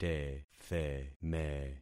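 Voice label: "tetheme", concatenated syllables; Affixing experiment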